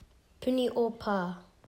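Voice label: "puniho pa", Maori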